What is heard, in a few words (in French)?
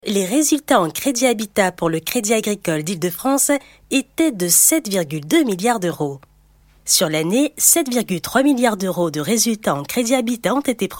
Motion design ton informatif affirmatif légèrement; CREDIT AGRICOLE; Processus habitat; souriant